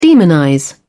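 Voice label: "demonize", British English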